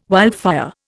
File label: "wildfire", Indian